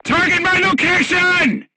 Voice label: voice message art command oneself, crew tanks portuguese